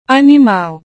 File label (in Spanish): "animal", Portugués